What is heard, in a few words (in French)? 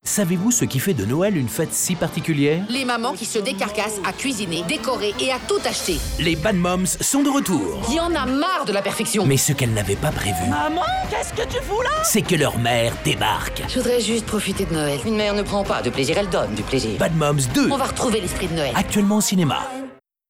RADIO Spot Noel; ACTU